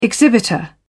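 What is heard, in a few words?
"exhibitor", British English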